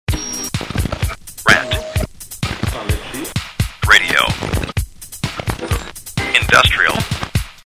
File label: Promo Industrial; Rant Radio Industrial; RantMedia; Station Promos-Listener Submitted